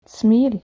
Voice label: "smil", Old Norse